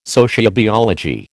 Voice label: "sociobiology", American